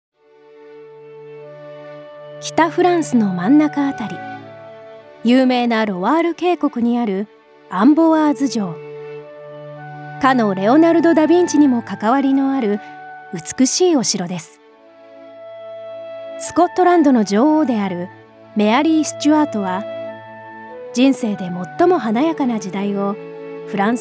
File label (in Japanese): ナレーション